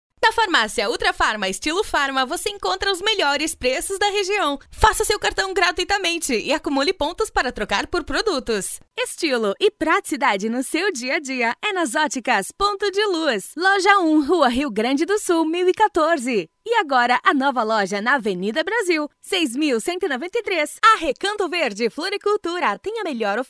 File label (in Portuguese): locutora